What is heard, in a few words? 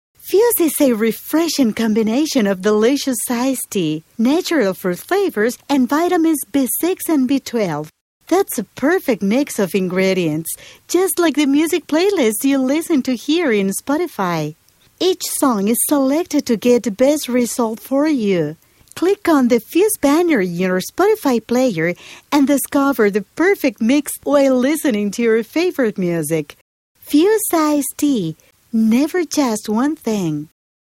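Spanish English commercial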